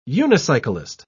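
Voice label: "unicyclist", British English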